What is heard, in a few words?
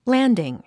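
"landing", English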